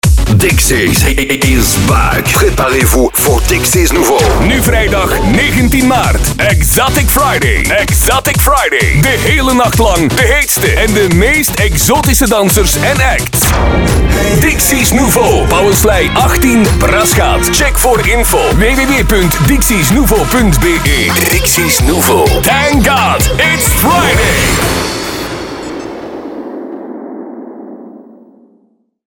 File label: party commercial; dixies nouveau